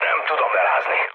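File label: Hungarian aircraft damaged mood low, speech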